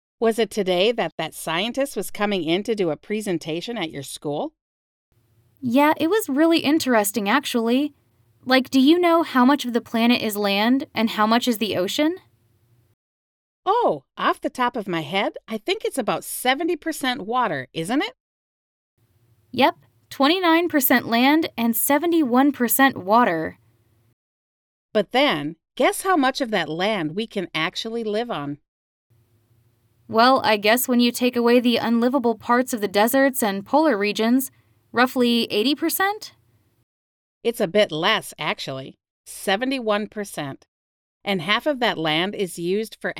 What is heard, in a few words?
conversation; Unit 6